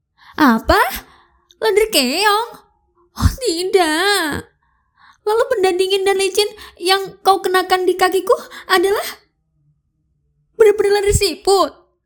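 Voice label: character Indonesian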